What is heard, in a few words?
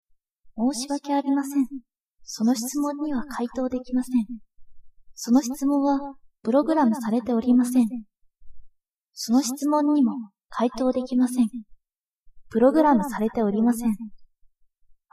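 robot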